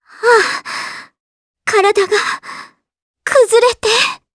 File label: Dead, jp; Kirze; kingsraid